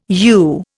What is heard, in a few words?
"you", Indian